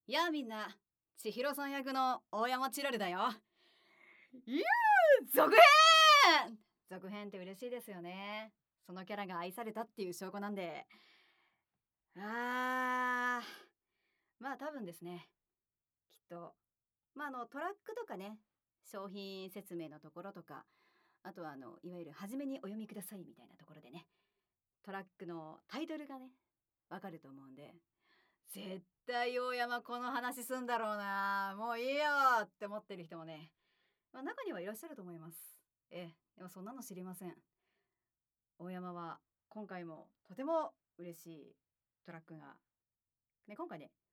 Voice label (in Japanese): フリートーク